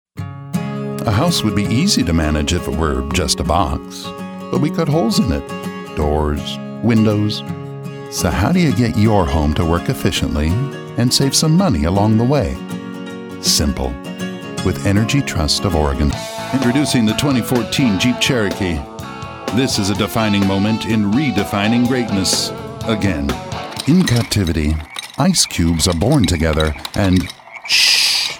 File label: Commercial